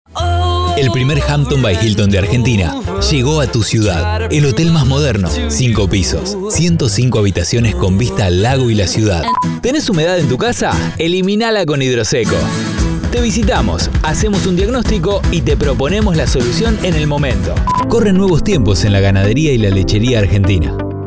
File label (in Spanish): voces masculinas